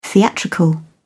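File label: "theatrical", British English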